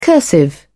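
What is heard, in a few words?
"cursive", British English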